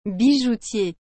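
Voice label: "bijoutier", French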